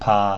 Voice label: "pa", pinyin